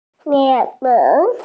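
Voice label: "mian4 fen3", Mandarin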